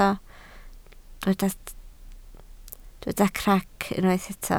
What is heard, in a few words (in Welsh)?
"crac", Welsh